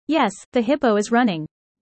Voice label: user fast; Animal friends